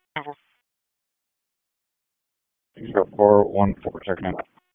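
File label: Raleigh Police Southeast District Dispatch, p25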